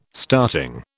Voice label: "starting", British